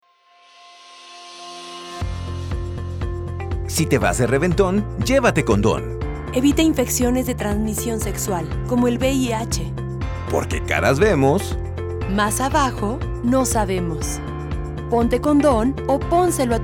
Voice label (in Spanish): spot radio 2 voces; spots publicitarios; famecom